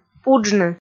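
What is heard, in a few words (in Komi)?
"пуджны", Komi, female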